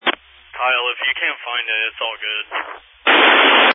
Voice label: Family Radio Service (FRS)